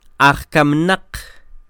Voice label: ajkaminaq, Quiché; INALI; UTexas; CHQETA